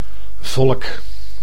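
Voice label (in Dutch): "volk", Dutch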